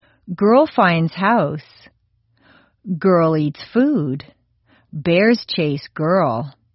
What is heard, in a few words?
American Accent